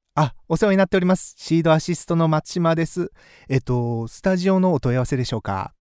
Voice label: TEL DRY